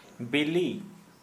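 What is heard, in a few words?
Santali male egg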